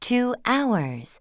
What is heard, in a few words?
english 6 hour; samsung YP-U5; Audio User Interface